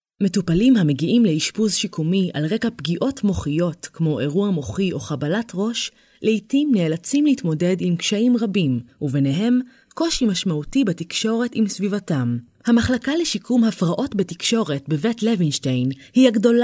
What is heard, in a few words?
Hebrew F Corporate; voice over